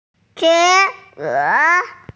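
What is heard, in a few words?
"tian1e2", Mandarin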